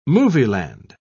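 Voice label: "movieland", British English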